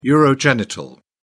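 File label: "urogenital", British English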